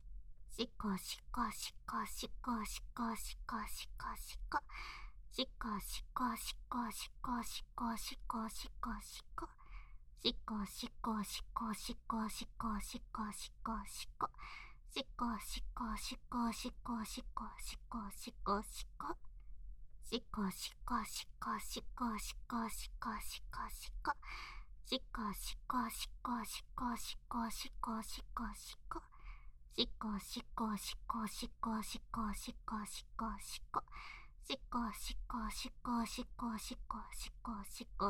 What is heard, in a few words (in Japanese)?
しこしこボイス詰合わせ